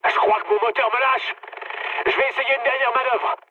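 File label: French damaged engine mood med; War Thunder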